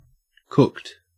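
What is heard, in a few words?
"cooked", Australian English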